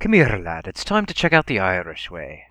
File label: Irish Scan Card